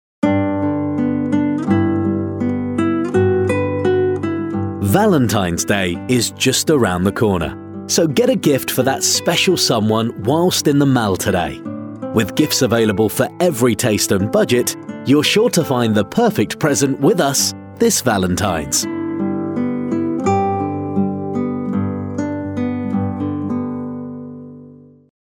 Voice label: valentines male

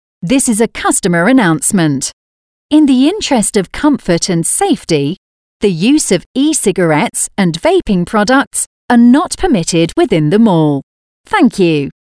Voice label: Female; E-Cigarettes

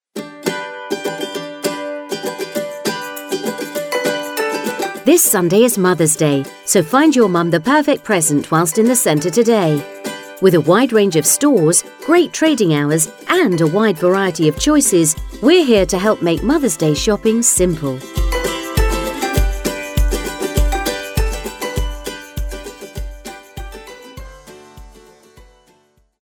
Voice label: mothers day female

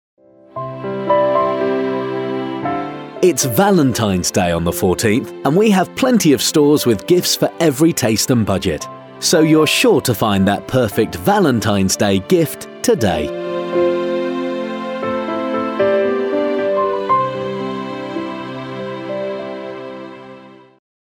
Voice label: valentines male

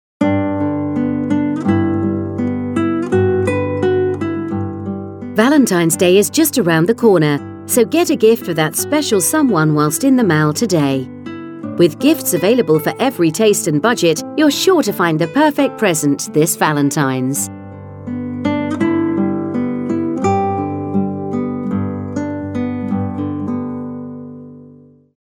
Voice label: valentines female

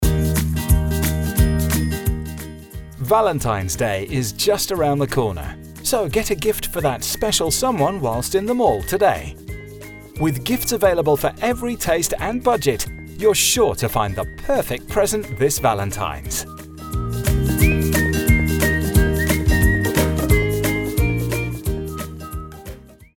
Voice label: Valentines Day Male

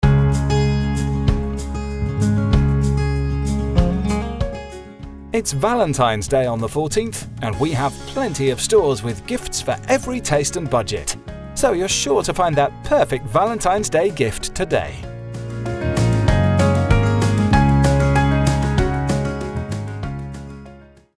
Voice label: Valentines Day Male

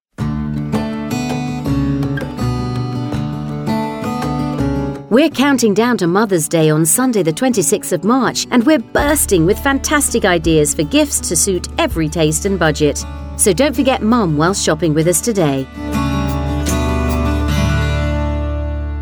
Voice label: mothers day female

